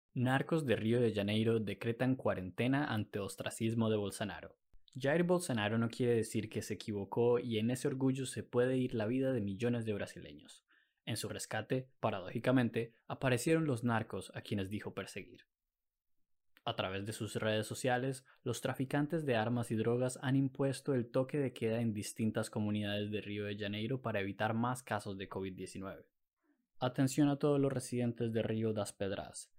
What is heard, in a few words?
Spanish (LA), Male